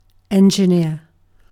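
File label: "engineer", British English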